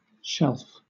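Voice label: "shelf", British English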